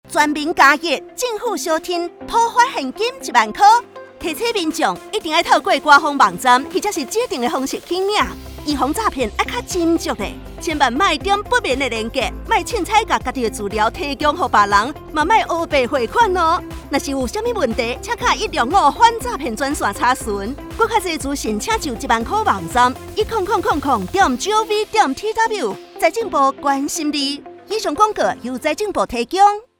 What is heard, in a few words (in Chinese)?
廣播 台語版; 全民+1 政府相挺預防詐騙篇廣播